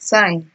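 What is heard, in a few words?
"sign", Nigerian Pidgin, female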